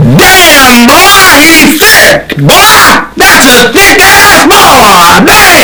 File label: damn boy distorted